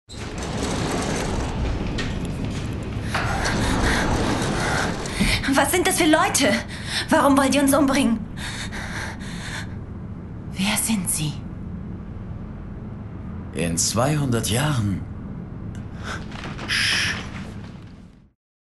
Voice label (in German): dt. Szene